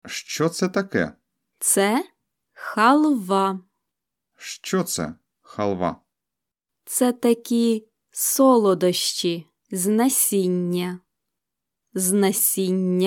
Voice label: Dialogue Slow